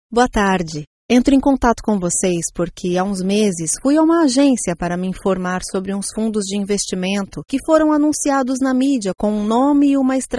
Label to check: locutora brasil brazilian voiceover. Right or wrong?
Right